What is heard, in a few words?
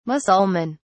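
"mussulman", British English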